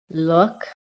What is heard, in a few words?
"LOOK", PHONETIC